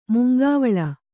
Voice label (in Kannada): "ಮುಂಗಾವಲು", Kannada